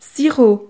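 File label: "sirop", French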